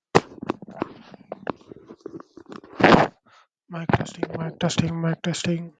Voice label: voice message; whatsapp